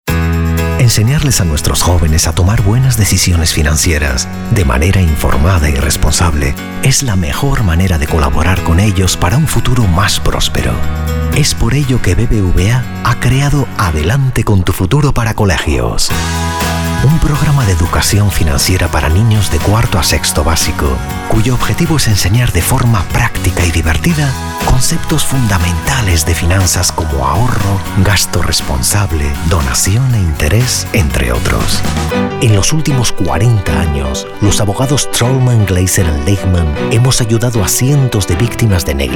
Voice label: Neutral Spanish